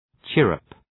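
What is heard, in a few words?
"chirrup", English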